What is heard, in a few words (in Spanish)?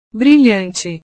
"brilhante", Portugués; brillante; palabras con LH